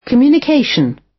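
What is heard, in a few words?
communication, British; Language, linguistics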